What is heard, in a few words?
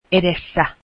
finnish in front of